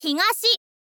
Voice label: girl